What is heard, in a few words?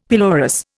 "pelorus", Indian; Dictionary